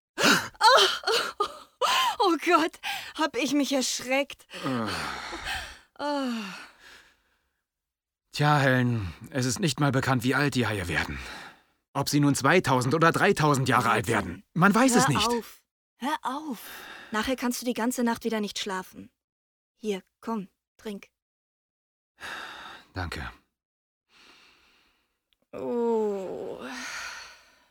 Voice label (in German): Synchron